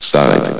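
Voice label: "sight", male synthesized announcer voice; hlvox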